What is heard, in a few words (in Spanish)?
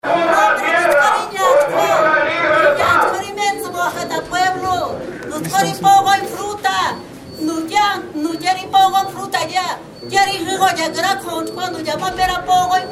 mujer mazahua indigena; presas del penal de santiaguito